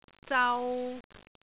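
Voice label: "zau3", Cantonese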